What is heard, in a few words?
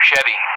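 "Chevy", English; Callsign; DCS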